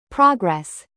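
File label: "bass", noun, British; progress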